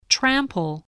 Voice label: "trample", voice